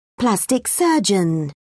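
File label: "plastic surgeon", British English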